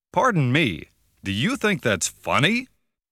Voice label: Pardon Me, Do You Think Tag's Funny, jingles

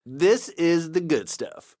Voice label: icecream reaction; donnie; Blind Drive

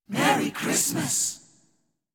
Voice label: Merry Christmas!, jingles

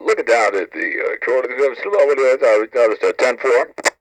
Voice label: Police Radio Chatter; Communications; 1001 Sound Effects

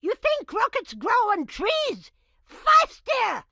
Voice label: grandma chopper missed; Blind Drive

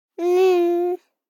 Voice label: BABIES TALK Boy 12 months "Mhhneww"; Baby Boom

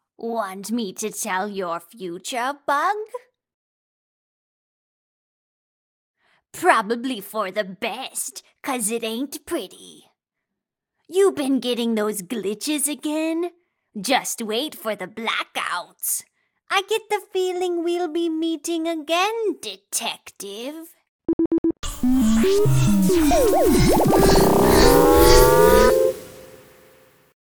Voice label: witch; Pitch Black - A Detective's Demise

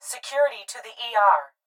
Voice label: Hospital Pa Voice; Blastwave

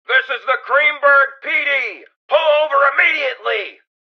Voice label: tutorial police intro; Blind Drive

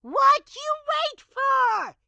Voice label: grandma chopper cmon; Blind Drive

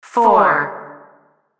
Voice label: Announcer; Pitch Black Audio Pong

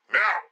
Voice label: tutorial police now; Blind Drive

